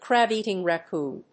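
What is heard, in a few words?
"Crab-eating Raccoon", English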